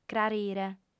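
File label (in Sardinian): "crarire", female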